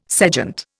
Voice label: "sejant", Indian

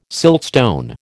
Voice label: "siltstone", American

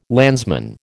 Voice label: "landsman", American

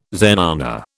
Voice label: "zenana", British

